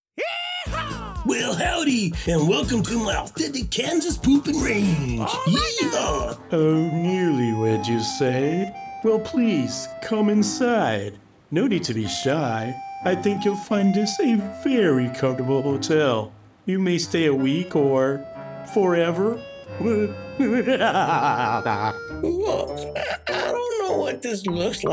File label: animation